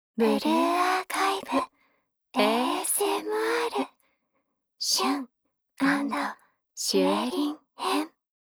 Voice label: タイトルコール, ASMR

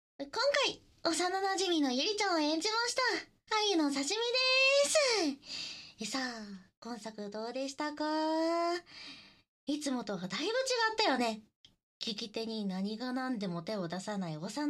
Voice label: フリートーク, ASMR; 閲覧注意!片思い中の女の子からエッチな体験談を聴かされて脳を破壊されます! ～清楚系な幼馴染がいつの間にかヤリマンビッチになってた話～

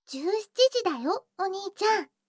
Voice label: 時報17時, ASMR

